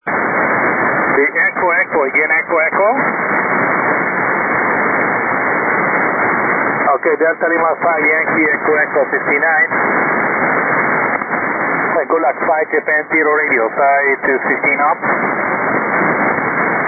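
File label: 40m single sideband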